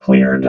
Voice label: "cleared", robot vox